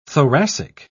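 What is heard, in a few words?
"thoracic", British English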